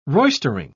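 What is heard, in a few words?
"roistering", British English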